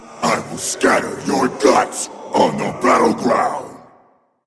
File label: taunt, voice; smite; voicepack; tyr